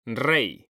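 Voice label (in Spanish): "rey", male; mekanta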